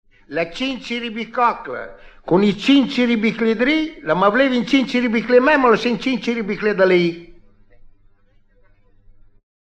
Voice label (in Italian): scioglilingua, romagna